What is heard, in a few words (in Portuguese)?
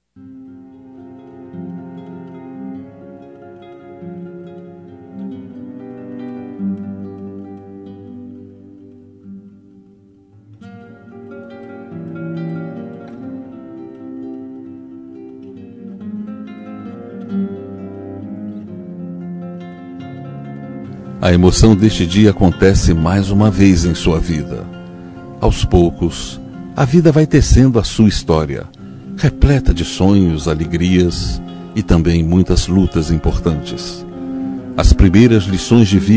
irmao masc